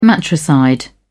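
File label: "matricide", British English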